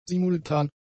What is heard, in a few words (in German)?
"simultan", german; adjectives